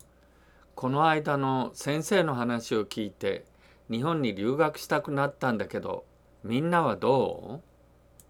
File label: dialogue line, japanese